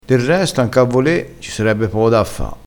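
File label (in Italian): "der resto", dialettale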